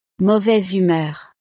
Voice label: "mauvaise humeur", frances; estados animo

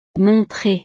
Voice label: "montrer", frances

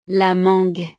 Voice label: "la mangue", frances; frutas

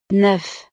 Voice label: "neuf", frances

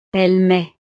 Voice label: "elle met", frances

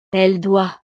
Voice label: "elle doit", frances

